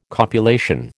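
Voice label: "copulation", American English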